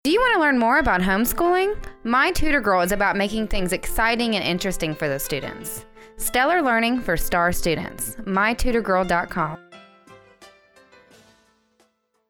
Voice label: Commercial sample